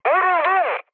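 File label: Turkish aircraft damaged, mood med, speech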